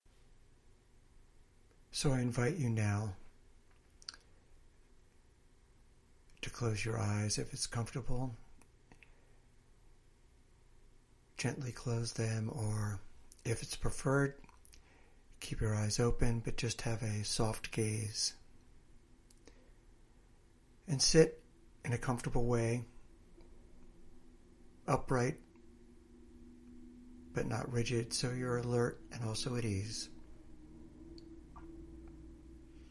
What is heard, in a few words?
Guided Meditation; RAIN